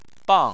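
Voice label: "bang" Chinese, fourth tone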